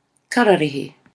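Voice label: "kararahe", Maori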